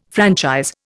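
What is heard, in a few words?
"franchise", Indian English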